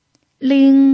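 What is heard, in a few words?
"ling", Mandarin Chinese pinyin, tone 1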